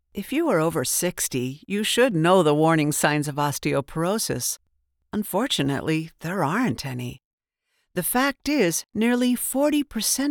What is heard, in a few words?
Medical Warm Friendly Sincere Mid tone, voiceover